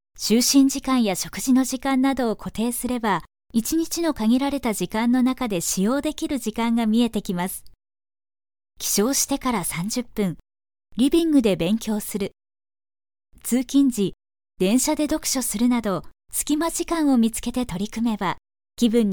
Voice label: Narration